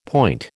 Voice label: "point", American